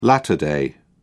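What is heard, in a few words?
"latter day", British English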